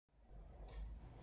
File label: voice message